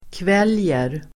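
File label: "kväljer", Swedish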